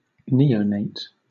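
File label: "neonate", English, British accent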